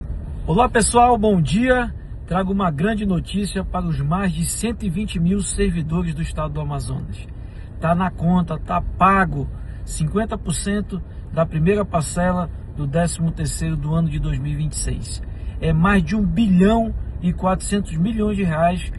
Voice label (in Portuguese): Anuncio